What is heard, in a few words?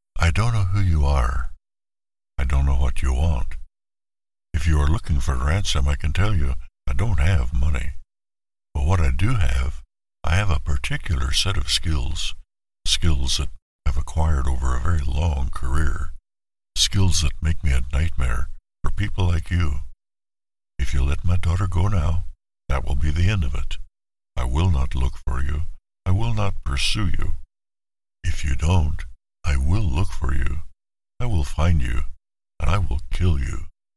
Liam Neeson Style